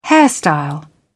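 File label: "hairstyle", British English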